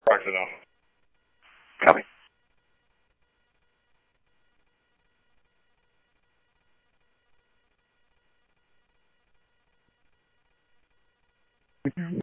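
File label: police department, scanner audio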